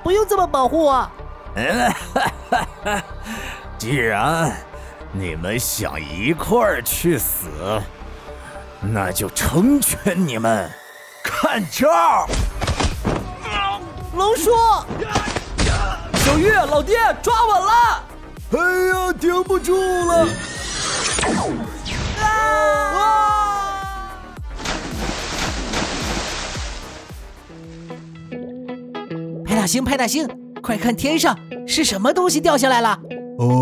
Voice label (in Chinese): 角色 自制 模仿 老爹